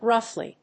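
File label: "gruffly", English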